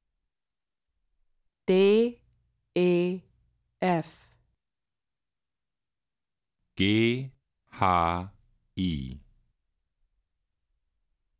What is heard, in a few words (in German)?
das Alphabet, German